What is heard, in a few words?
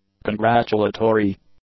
"congratulatory", English; adjectives